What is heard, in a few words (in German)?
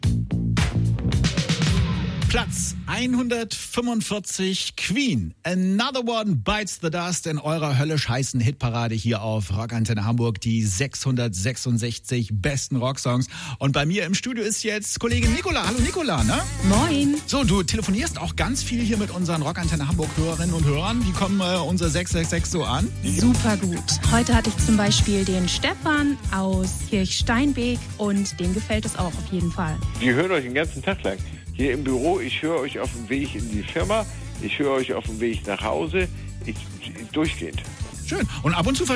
Live Ansage